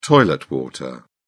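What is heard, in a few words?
"toilet water", British English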